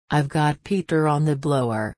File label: frase blower, Reino Unido; girias